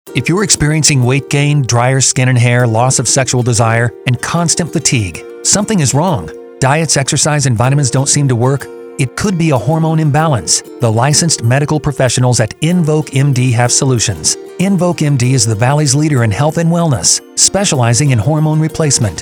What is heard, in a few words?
MALE, advertising; ENVOQUE MD HEALTHY SPOT